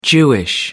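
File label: "jewish", British English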